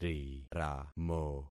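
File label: "riramo", concatenated syllables; Affixing experiment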